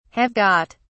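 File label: "have got", English, uk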